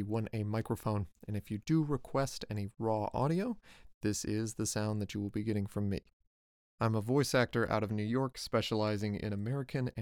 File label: Raw Studio